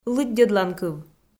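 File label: "лыддьӧдланкыв", Komi, female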